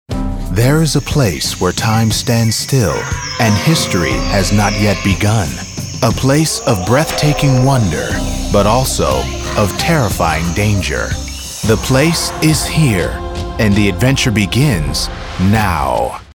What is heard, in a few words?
Movie Trailer